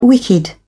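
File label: "wicked", British English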